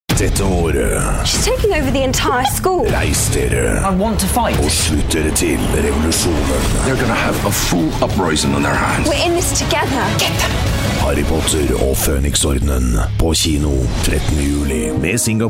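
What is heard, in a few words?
norwegian male commercial, voice demos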